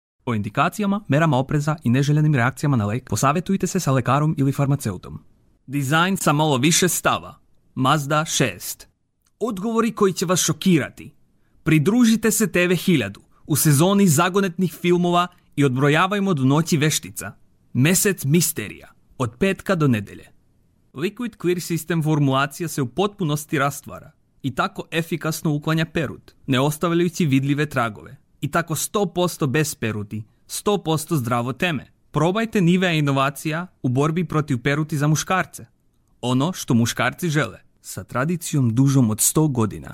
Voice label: Serbian; 塞尔维亚语配音员（男1）